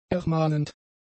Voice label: "ermahnend", German; adjectives

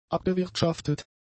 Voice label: "abgewirtschaftet", German; adjectives

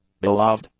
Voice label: "beloved", English; adjectives

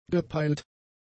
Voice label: "gepeilt", German; adjectives

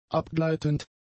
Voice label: "abgleitend", German; adjectives

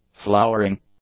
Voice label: "flowering", english; adjectives

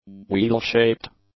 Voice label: "wheel-shaped", english; adjectives

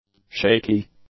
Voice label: "shaky", English; adjectives

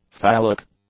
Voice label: "phallic", english; adjectives

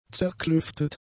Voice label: "zerklüftet", German; adjectives

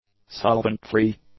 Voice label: "solvent-free", english; adjectives

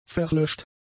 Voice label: "verlöscht", German; adjectives

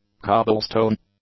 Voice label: "cobblestone", English; nouns

